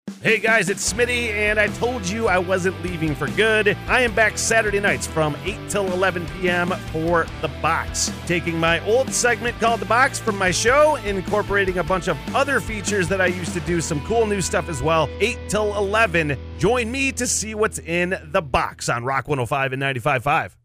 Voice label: Box Promo Read